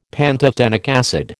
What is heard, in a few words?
"pantothenic acid", American